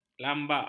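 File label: "lambak", Malay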